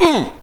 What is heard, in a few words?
human hit; wesnoth